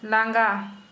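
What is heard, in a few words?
Santali, female, tired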